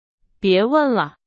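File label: Chinese; hsk2; 不要······了／别······了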